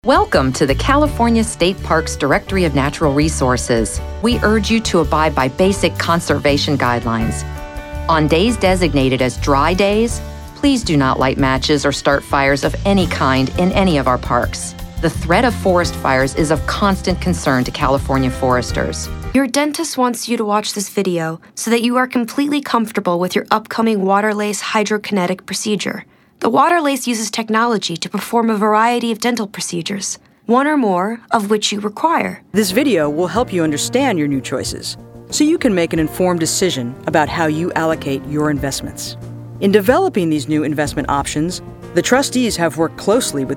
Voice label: VO Women Tutorial eLearning; Get Reel